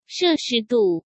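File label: "摄氏度", Mandarin Chinese; hsk; chinese-flashcards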